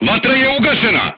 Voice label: voice message fire extinguished, crew tanks serbian